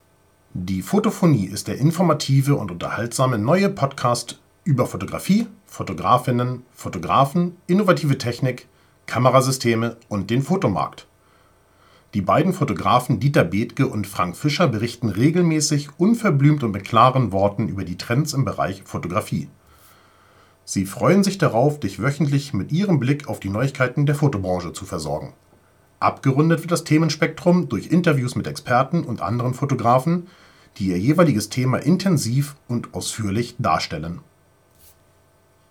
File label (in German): NTG2 nah dran; zum videos drehen mit der dslr spiegellosen